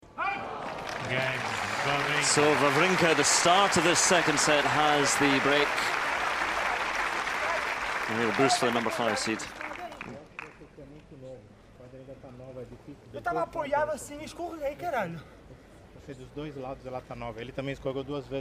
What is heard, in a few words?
rant